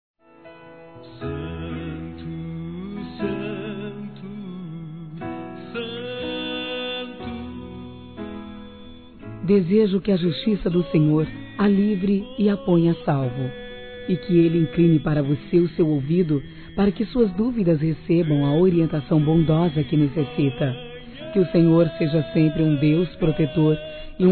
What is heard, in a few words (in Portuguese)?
Trilha ANIV. FILHA F, Telemensagens Gospel fem; Telemensagens 2017